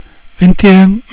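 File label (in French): "vingt un", French; cat numeration doigts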